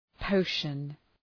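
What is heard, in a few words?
"potion", English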